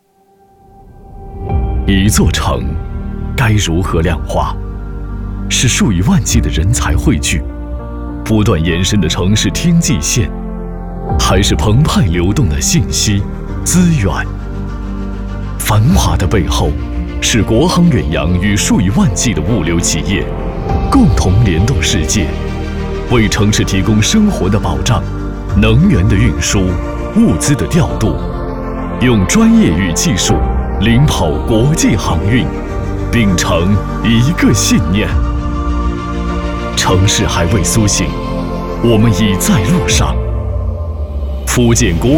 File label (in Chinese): 男 宣传片 科技感气质